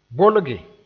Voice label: "bolge", moore